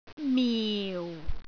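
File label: "miu4", Cantonese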